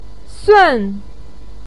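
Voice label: "sun", Mandarin Chinese syllable, fourth tone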